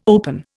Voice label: "open", Indian